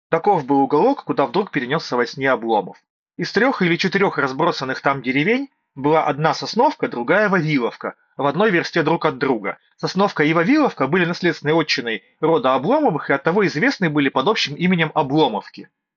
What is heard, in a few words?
Mic; Запись с микрофона гарнитуры; soundpeats air5 pro